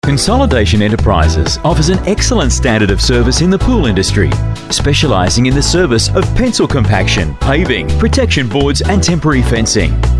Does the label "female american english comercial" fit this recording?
No